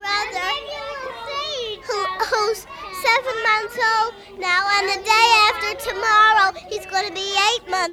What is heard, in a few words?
children at school talking about various; Walden school